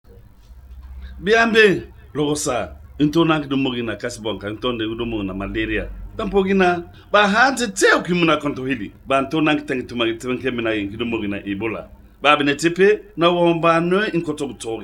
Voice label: Limba; ebola; radio-spots